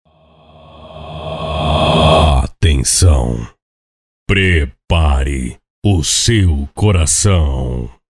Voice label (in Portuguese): voz impacto